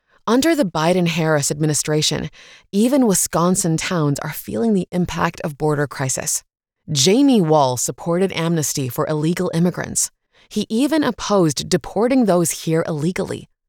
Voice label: english international political ads; voice actor